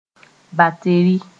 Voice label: "bateri", Mooré; battery

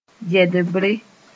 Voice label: "yɛdbre", moore

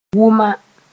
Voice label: "wʋma", Mooré; entirely

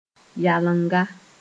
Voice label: "yalenga", Moore

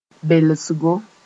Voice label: "belsgo", Moore

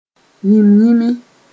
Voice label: "yĩm-yĩmi", Mooré

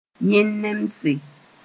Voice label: "yẽn-nemse", Mooré

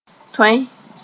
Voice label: "tõe", Mooré

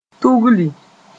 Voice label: "tugli", moore

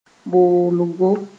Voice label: "boolgo", Mooré

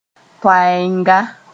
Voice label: "toɛɛnga", moore

